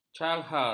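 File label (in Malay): "cahar", Malay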